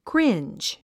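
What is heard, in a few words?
"cringe", English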